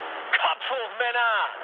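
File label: German near waypoint mood high, speech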